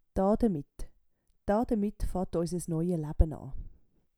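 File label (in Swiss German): "daa demit", Swiss German; Wortschatz Kapitel 8; Kapitel 8 Zusatzwortschatz 3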